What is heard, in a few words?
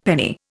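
"penny", Indian English; Dictionary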